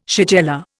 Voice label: "shigella", Indian

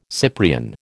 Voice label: "Cyprian", American

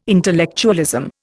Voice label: "intellectualism", Indian

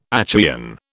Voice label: "Achaean", British

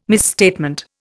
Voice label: "misstatement", Indian

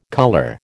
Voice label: "colour", American

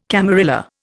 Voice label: "camarilla", Indian

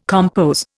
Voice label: "compos", Indian

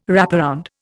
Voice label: "wraparound", Indian

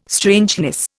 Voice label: "strangeness", Indian

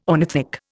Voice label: "ornithic", Indian

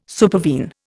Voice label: "supervene", Indian

